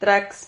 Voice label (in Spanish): "trax", Silabas